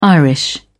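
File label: "irish", British English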